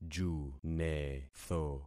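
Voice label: "junetho", concatenated syllables; Affixing experiment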